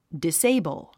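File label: "disable", English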